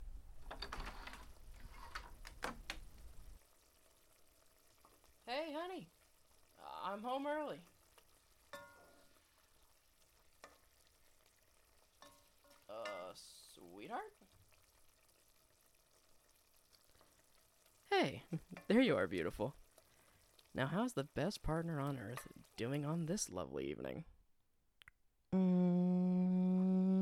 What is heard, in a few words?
No Wet SFX